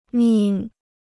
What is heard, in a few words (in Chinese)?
"命", Chinese